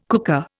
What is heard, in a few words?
"cooker", Indian